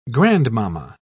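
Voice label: "grandmamma", English, British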